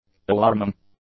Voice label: "alarming", English; adjectives